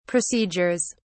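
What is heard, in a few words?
"procedures", English, British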